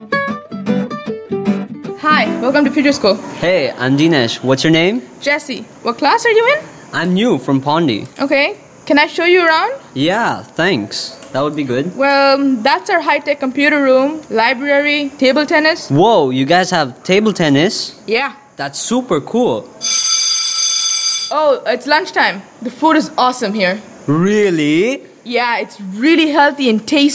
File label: performance, english; future school ad experiment